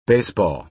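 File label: "baseball", American English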